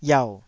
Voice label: "iau3", Taiwanese syllable